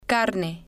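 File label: "carne", Spanish, female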